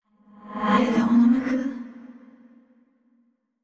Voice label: surprised; yamiko